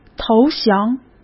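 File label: "投降", Mandarin Chinese; chinese flashcards; hsk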